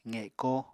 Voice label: "qeko", apatani